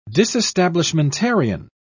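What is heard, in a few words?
"disestablishmentarian", British English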